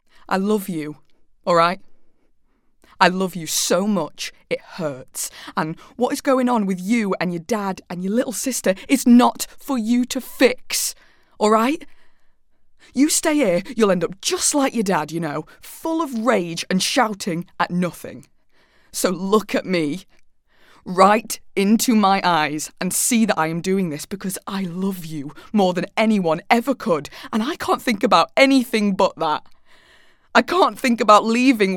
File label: Drama; Teens-30s; Female; Derbyshire